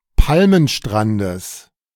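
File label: "Palmenstrandes", German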